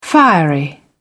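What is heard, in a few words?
"fiery", English, British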